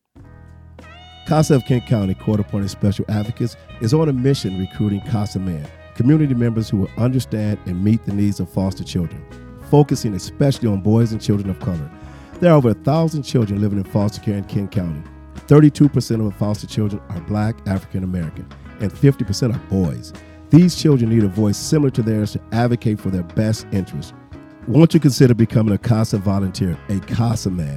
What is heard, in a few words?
Commercial; Casa Man